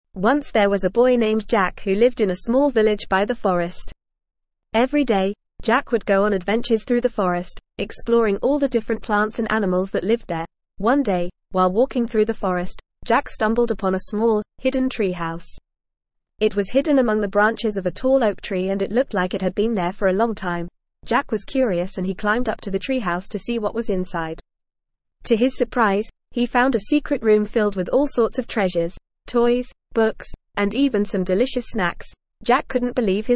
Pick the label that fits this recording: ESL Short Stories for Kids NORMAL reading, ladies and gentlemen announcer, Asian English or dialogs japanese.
ESL Short Stories for Kids NORMAL reading